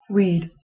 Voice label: "wid", Anglo Saxon